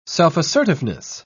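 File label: "self-assertiveness", British English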